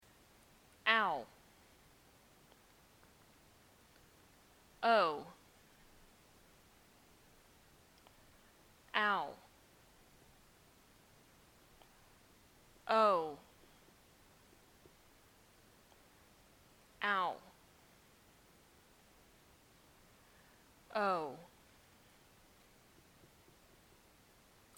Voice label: compare au ow